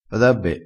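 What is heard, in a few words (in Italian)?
"be", vocabolario dialettale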